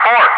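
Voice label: "3", English speech, Wingman; loud; DCS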